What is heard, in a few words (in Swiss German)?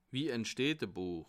"entsteht", Bas Rhin; la naissance du livre